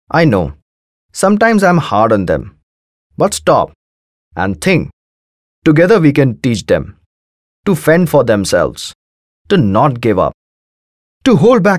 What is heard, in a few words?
English, male voice